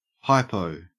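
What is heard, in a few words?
"hypo", Australian English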